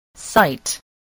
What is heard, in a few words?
"sight", spelling